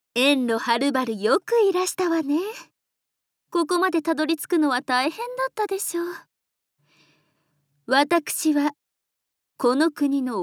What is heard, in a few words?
Woman